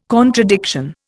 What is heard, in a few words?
"contradiction", Indian